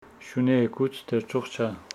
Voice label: Homshetsi tomorrow the dog will not run